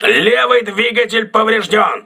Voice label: voice message left engine damaged, crew ships russian